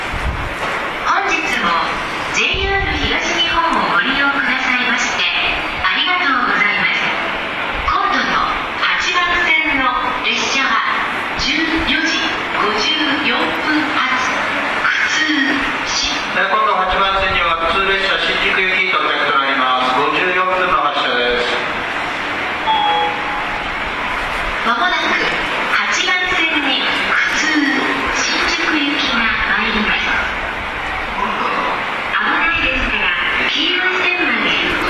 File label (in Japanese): 215系到着放送, 大崎駅にて; 大崎駅にて。オール二階建ては大崎にはもう来ません。; 湘南新宿ライン; ホリデー快速・湘南ライナー